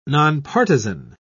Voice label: "nonpartizan", en uk